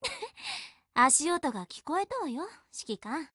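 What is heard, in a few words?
HELLO, Japanese; GF Alarm; Gm6Lynx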